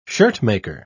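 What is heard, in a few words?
"shirtmaker", British English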